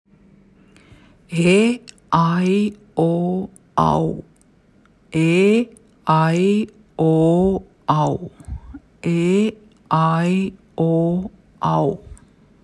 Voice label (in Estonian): e ai o au, diftongid, sanskriti; täishäälikute kombinatsioonid